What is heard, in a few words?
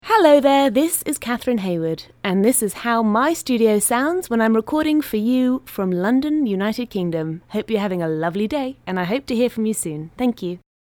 Studio Sample